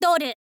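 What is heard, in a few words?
"dollar", Japanese, girl; voice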